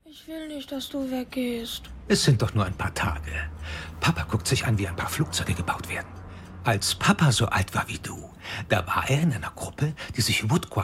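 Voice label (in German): Synchron, Sprecher, Männer; Arte; Stomhouse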